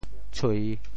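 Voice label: "tshui3", Teochew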